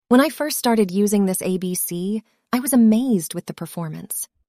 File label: synthesized audio